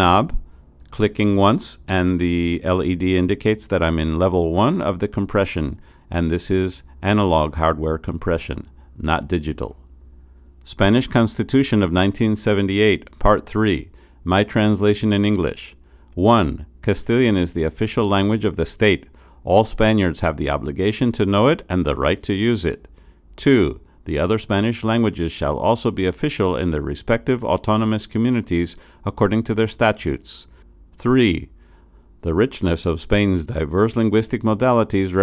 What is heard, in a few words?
Apogee Hype Mic